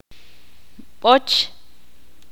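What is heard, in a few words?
"cerdo" Spanish, Ixil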